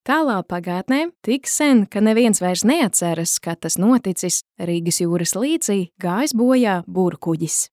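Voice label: Latvian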